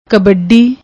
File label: "kbadi", Punjabi; ਕਬੱਡੀ